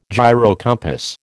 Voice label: "gyrocompass", American

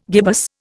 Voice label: "gibbous", Indian

